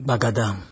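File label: "gbagadam", Nigerian Pidgin, male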